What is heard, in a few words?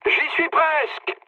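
near waypoint, mood high, French; War Thunder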